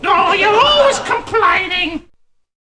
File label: all complain